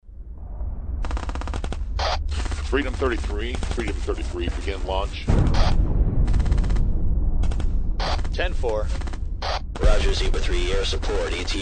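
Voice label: battle pack narration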